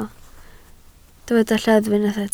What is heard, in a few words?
"lleddf", Welsh